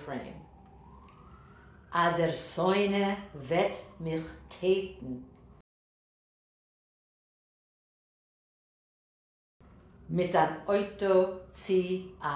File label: pronunciation drill; Pleytem Tsuzamen